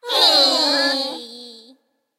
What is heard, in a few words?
tower lose en